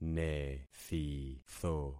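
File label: "nethitho", concatenated syllables; Affixing experiment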